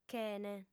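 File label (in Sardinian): "chene", female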